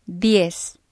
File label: "diez", spanish